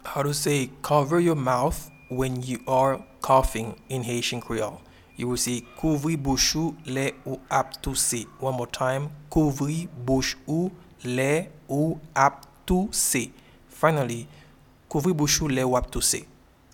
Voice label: Cover your mouth when you are coughing in Haitian Creole Kouvri bouch ou le ou ap touse